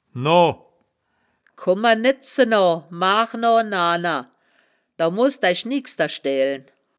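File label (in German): "noa", Wittlicher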